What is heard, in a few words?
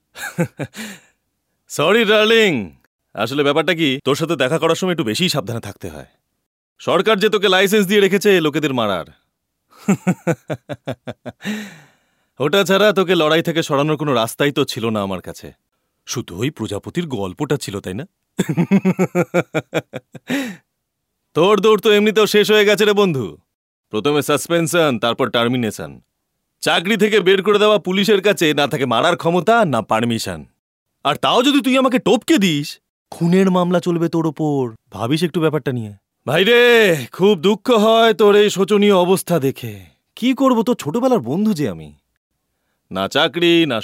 Character Voice Sample